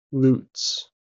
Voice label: "loots", en; pronunciations

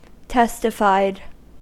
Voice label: "testified", US; pronunciations

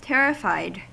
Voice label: "terrified", US; pronunciations

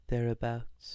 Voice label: "thereabouts", US; pronunciations

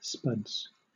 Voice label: "spuds", en

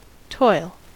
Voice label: "toil", US

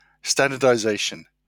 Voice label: "standardization", en

